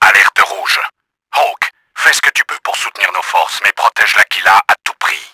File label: RED ALERT, french; Battle Engine Aquila; XBOX